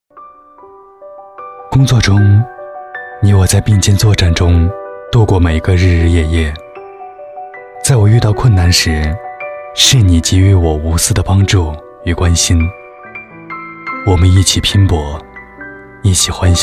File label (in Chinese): 男, 手表品牌宣传广告; 致豪腕表